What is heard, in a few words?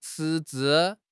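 "赤字", Chinese; HSK6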